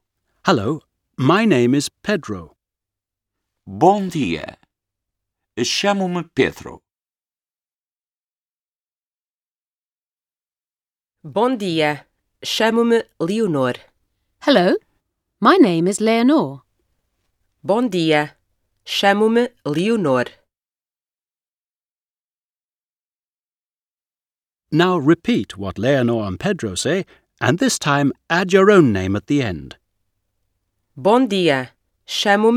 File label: Portuguese